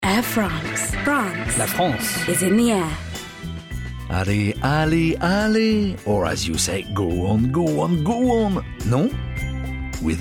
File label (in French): spot radio en Anglais; Air France Allez